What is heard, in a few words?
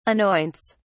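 "anoints", British English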